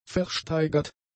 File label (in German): "versteigert", German; adjectives